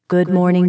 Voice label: "good morning" female; computers